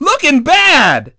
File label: dj crash heavy, DJ VOICE; Nintendo; July 2020 Gigaleak